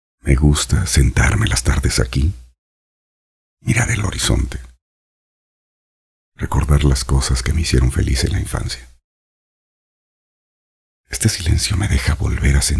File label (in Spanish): locución tono reflexiva sin musica